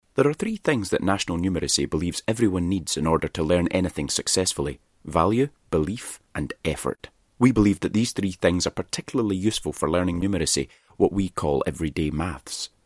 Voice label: male scottish voice over talent narrative